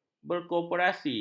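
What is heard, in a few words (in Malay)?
"berkoperasi", Malay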